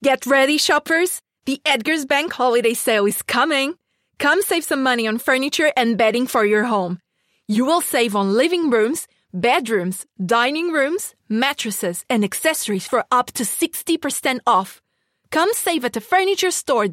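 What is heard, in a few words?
Portuguese English female commercial; accent of portugal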